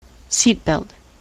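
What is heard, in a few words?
"seatbelt", US English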